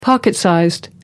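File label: "pocket-size", British English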